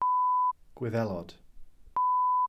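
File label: "Gwyddelod", Welsh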